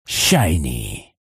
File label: shoutout en; jewels